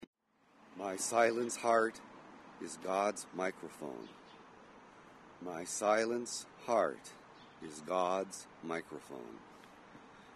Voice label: poem